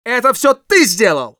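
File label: Scout good job, Russian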